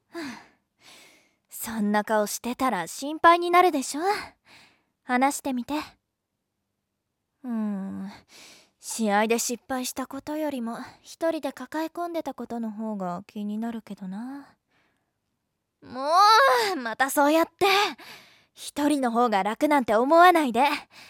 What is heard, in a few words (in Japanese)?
落ち着いた高校生; jtb-ent-voice